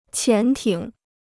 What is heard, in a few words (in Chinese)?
"潜艇", Chinese